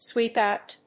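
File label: "sweep out", English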